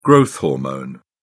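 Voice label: "growth hormone", British English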